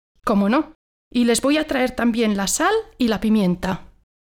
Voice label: conversation